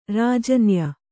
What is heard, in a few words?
"ರಾಜನ್ಯ", Kannada; rājanya; noun; a king.